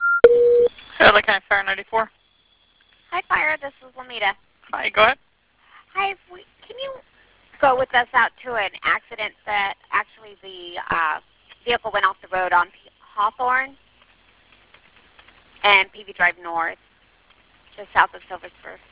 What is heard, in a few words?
911 Call; Tiger Woods; LOS ANGELES